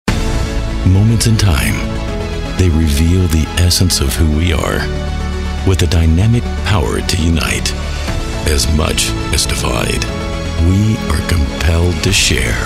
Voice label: Promo